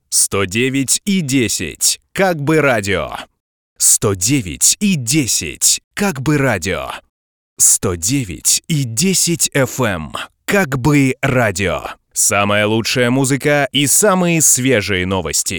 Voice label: Jingle